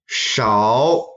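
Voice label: "shao2", Mandarin Chinese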